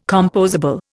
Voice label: "compossible", Indian

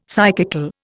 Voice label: "psychical", Indian; Dictionary

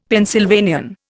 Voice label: "Pennsylvanian", Indian; Dictionary

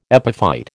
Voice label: "epiphyte", American

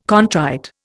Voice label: "contrite", Indian

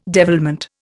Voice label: "devilment", Indian

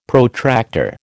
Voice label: "Protractor", American

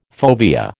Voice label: "fovea", American

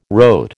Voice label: "rote", American

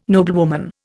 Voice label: "noblewoman", Indian

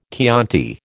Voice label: "Chianti", American

